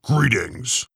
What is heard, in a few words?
Greetings, Orc; Urok the Orc